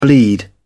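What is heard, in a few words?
"bleed", British English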